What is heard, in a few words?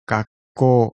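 "gakkou" Japanese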